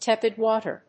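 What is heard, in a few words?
"Tepid water", English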